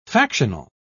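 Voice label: "factional", British English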